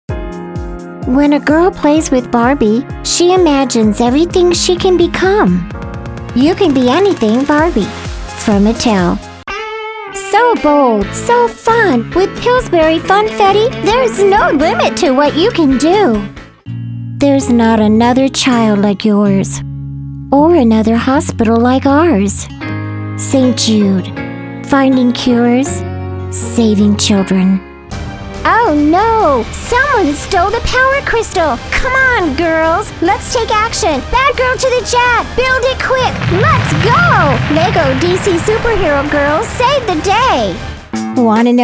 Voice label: Commercial